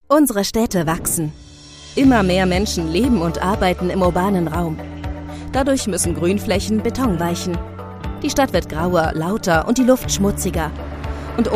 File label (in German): Erklaerfilm offen freundlich; Klimawandel